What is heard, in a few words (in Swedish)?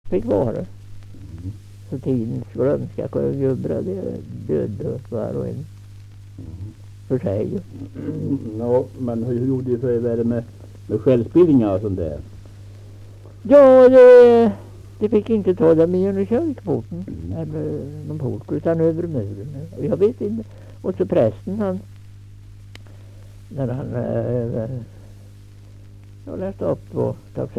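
Nysund Närke, dialekter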